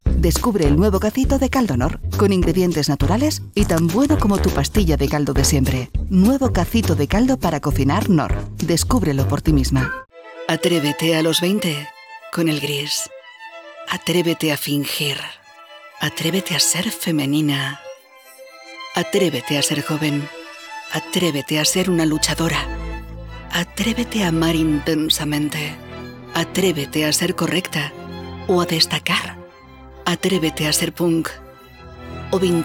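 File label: comercial, Spanish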